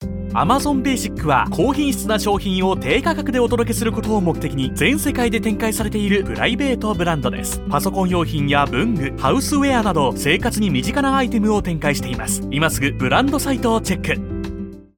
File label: japanese promos